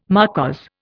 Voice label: "marquise", Indian